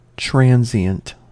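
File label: "transient", US English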